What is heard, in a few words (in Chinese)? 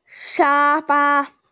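"sha1fa1", Mandarin Chinese, female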